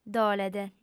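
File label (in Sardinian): "dolet", female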